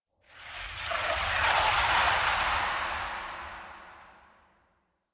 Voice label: Interior Cave Texture Whispers; Ambience; Misc Indoor; DE; Warframe; Infested Eris